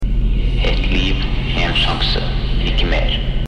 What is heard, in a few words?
norwegian